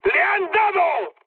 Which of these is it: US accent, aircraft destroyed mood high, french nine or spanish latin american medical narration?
aircraft destroyed mood high